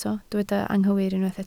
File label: "anghywir", Welsh